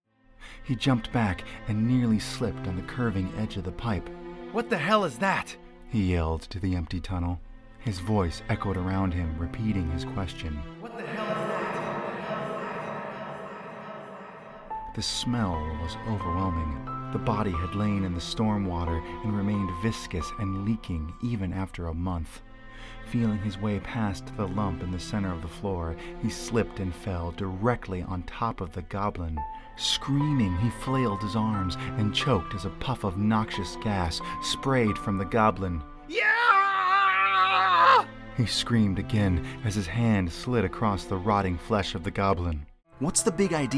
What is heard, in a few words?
Narration, Male voices